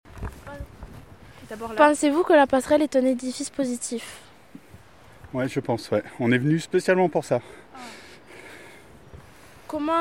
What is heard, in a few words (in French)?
MICROTROTTOIR REGION PARISIENNE